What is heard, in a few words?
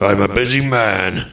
annoyed; war2; humans; mage; I'm a busy man; alliance